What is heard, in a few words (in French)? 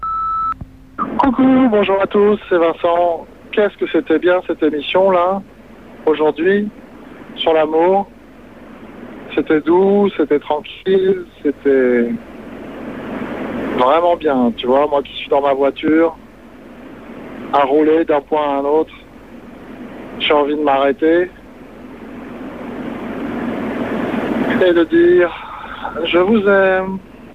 repondeur; Bravo pour l emission sur l orgasme feminin